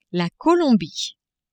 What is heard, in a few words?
"Colombie", French